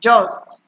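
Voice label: "just", Nigerian Pidgin, female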